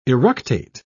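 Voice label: "eructate", British English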